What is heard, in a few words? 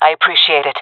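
thankful, female voice; player vo; Counter Strike Model Pack; CS:GO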